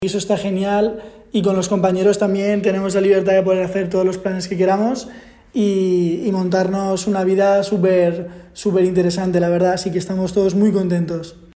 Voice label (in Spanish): testimonio